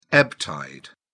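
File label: "ebb tide", British English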